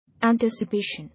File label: "Anticipation", English